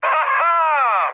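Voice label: trick, Czech, mood med; speech; War Thunder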